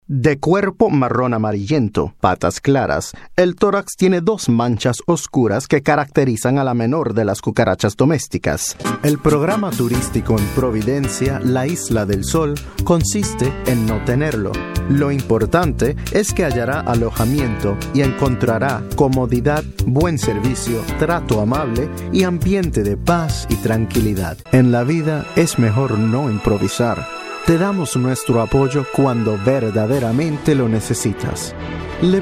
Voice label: 30s Male Hispanic Bilingual Commercial Spanish

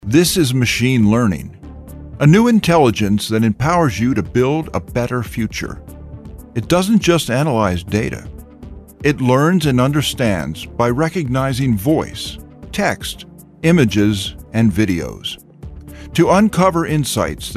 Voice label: 40s50s Male Narration

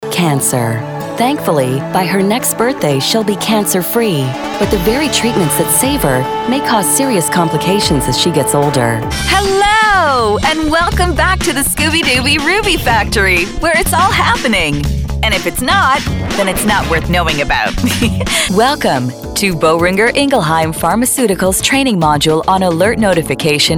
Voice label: 40s Female Narration

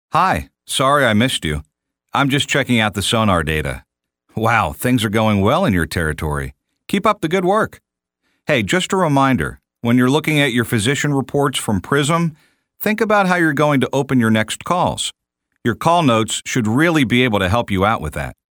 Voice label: 30s 40s Male

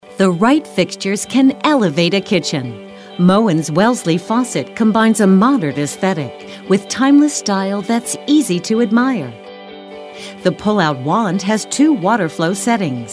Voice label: Female 40s Narration

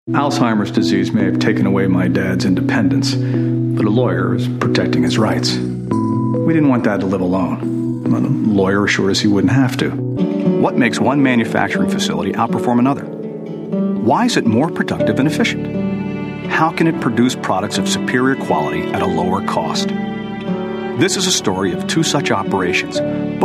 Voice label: 50s Male Narration; Mature (40s & up) Voiceover Talent

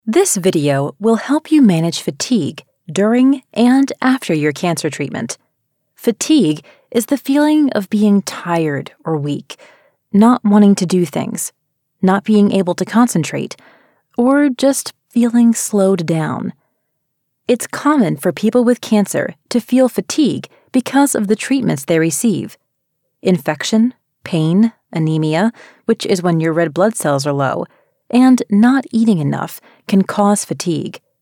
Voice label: 30s Female Narration

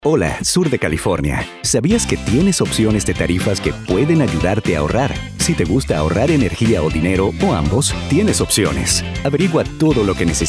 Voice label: 30s40s Male Spanish Commercial

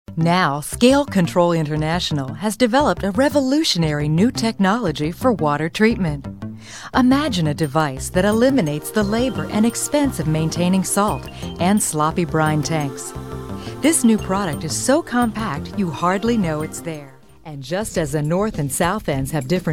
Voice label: 40s 50s Female Narration

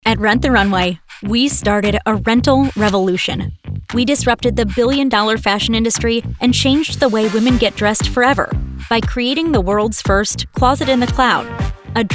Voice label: 20s30s Female Narration

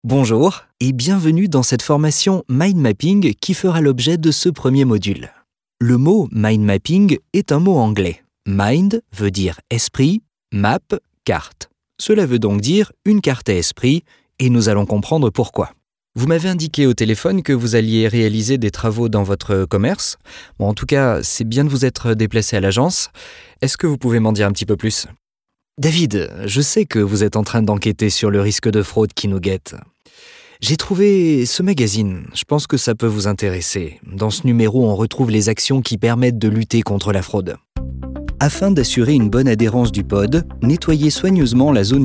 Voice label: 30s Male European French eLearning

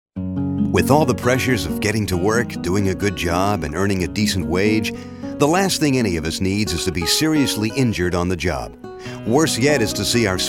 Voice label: 40s Male

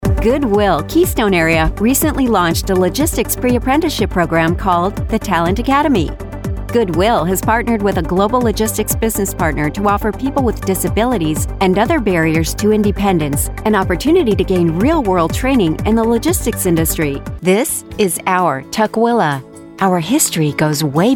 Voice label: 30s 40s Female Narration

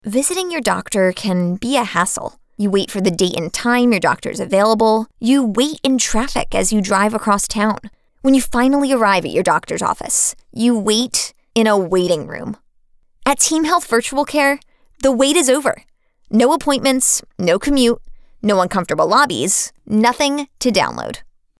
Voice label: 20s Female Explainer

